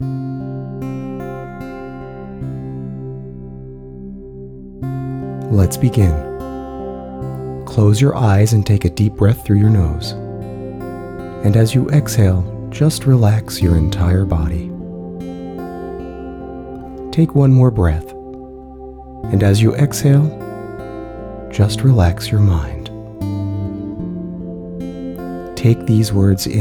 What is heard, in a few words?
clarity of thought with music